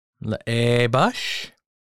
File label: walking, Lushootseed; ləʔibəs; summer